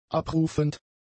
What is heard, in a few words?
"abrufend", German; adjectives